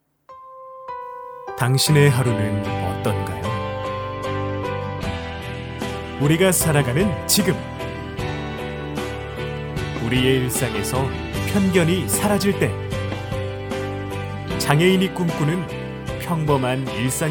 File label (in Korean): 광고 캠페인